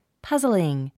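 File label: "puzzling", English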